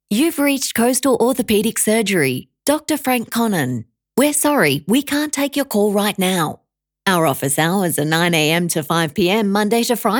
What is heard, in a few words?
Phone Orthopaedic Surgery Voicemail Greeting; Coastal Orthopaedic Surgery